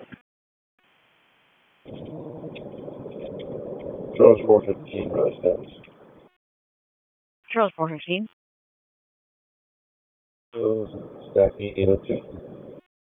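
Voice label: Raleigh Police Southeast District Dispatch; wake; p25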